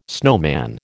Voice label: "snowman", American

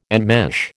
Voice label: "enmesh", American

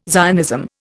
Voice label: "Zionism", Indian

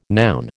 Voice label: "noun", American

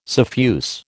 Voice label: "suffuse", American

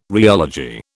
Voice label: "rheology", British

